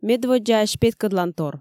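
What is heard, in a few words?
"медводдзаысь петкӧдлантор", Komi, female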